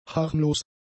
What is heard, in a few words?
"harmlos", German; adjectives